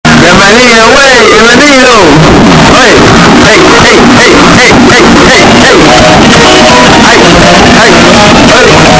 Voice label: we bienvenido earrape

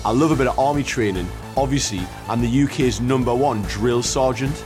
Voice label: drill sergeant

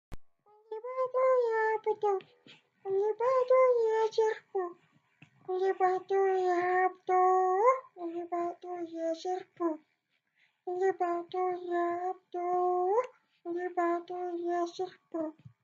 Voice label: helium voice

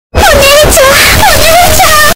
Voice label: onichan earrape

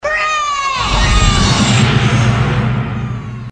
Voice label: kid

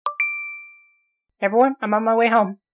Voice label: broadcast

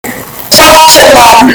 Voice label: earrape

